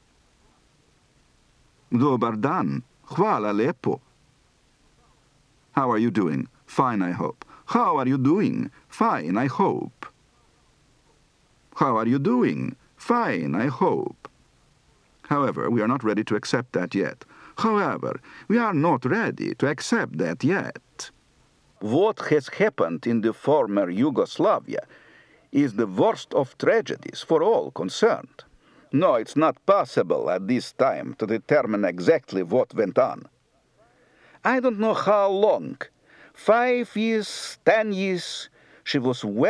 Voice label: Serbo-Croatian And Bulgarian, Accent